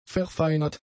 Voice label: "verfeinert", German; adjectives